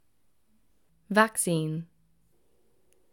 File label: Brit Vaccine, british english